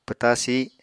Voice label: "pwta sih", Apatani